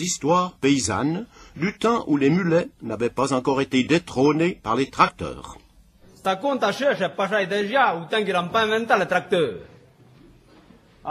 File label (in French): patois d'Heremence; patois valaisans; loeuf de lane